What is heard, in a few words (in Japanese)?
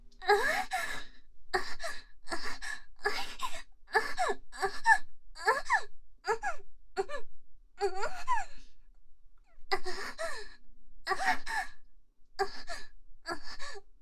ピロートーク; SE無; ある夜; リナ